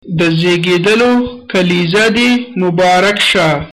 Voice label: birthday, pashto